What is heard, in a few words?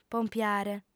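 "pompiare", female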